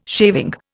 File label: "shaving", Indian